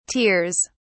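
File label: "tears", British English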